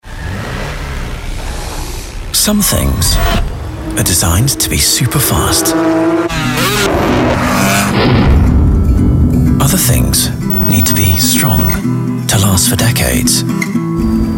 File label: corporate video young UK male voice over; Online Department More Time For Care